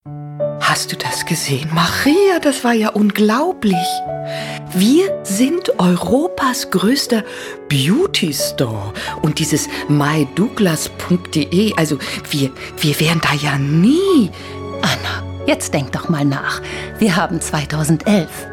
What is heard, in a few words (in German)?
Kommentar, frauen